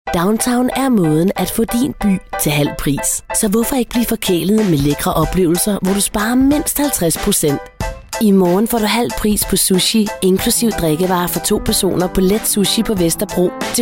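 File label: danish female commercial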